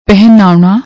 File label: "pehnaona", Punjabi